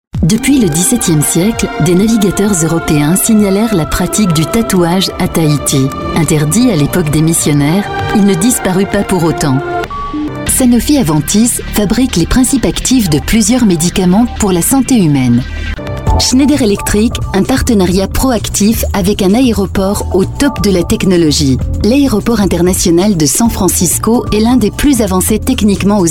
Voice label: French, French Female